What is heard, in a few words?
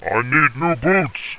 i need new boots, rumbly; tactics